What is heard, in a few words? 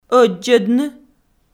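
"ӧддзӧдны", Komi, female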